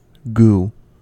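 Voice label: "goo", US English; pronunciations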